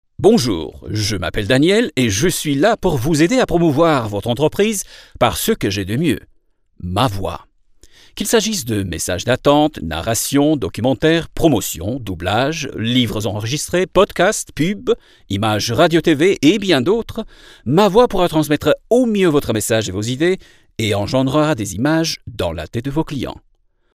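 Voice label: French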